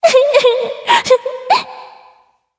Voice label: level up, en